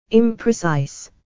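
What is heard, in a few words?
"imprecise", English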